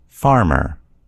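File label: "farmer", American English; gcompris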